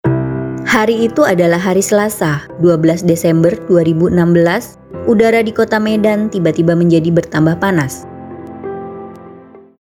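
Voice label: Indonesian formal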